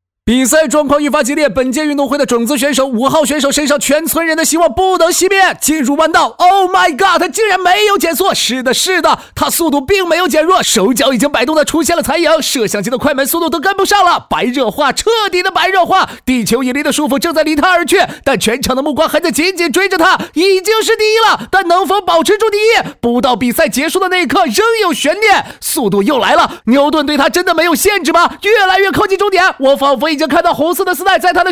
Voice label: 信息流赛事解说

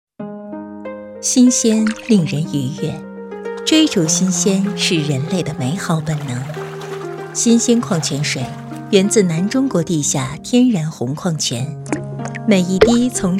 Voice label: 矿泉水广告

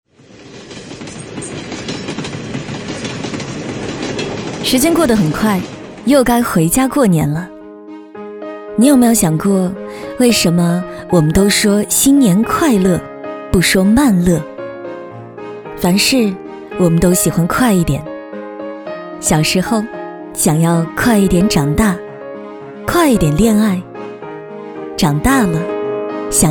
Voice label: 女

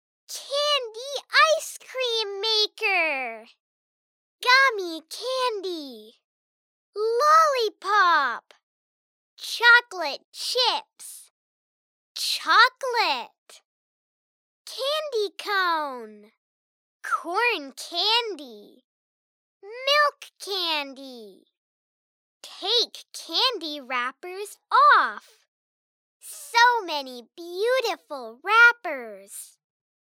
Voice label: 外籍英语童声游戏说明

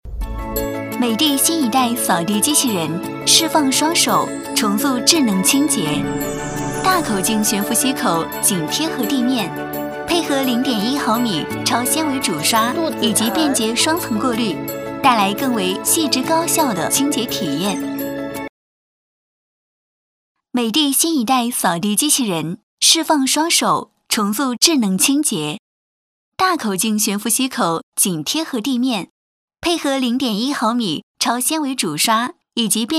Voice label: 产品推广配音; 美的

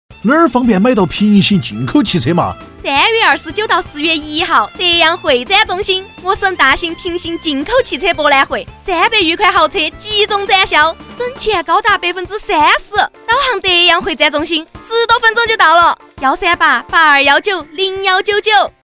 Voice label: 广告 川话广告

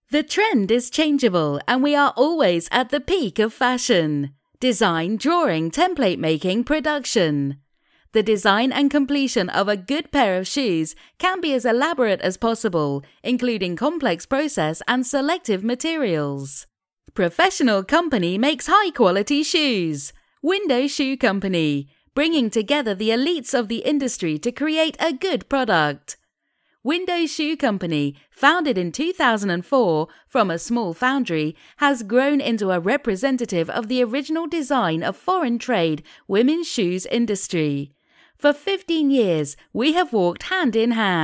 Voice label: 外籍英式英语时尚女鞋宣传广告配音

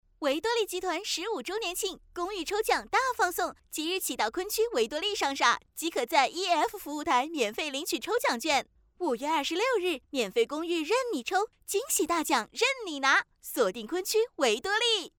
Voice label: 中文 促销; 维多利

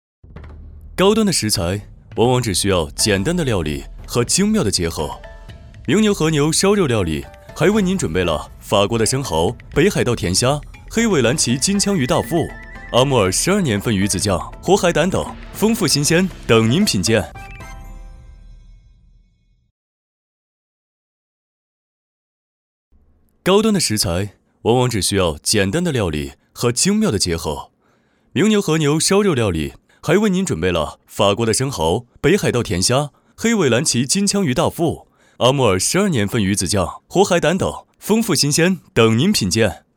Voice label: 品质时尚欢乐; 和牛料理烧

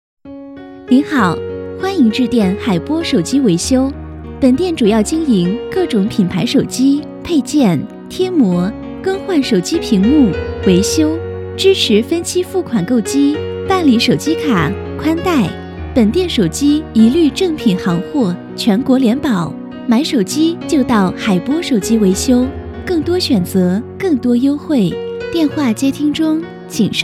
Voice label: 彩铃温馨

